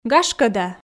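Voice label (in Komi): "гашкӧ да", Komi, female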